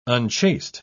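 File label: "unchaste", British English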